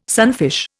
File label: "sunfish", Indian